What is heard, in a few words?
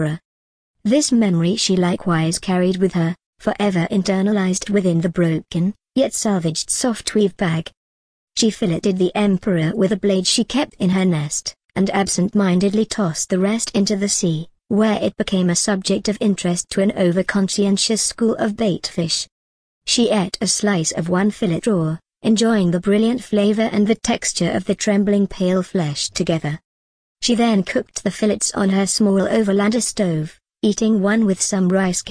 synth voice emma